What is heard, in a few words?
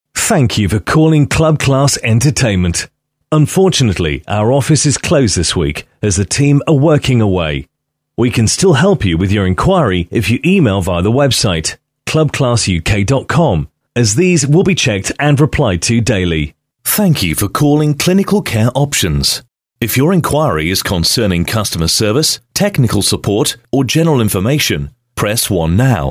ON HOLD